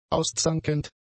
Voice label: "auszankend", German; adjectives